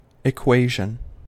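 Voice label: "equation", US English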